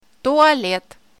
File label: "Toilet" In Russian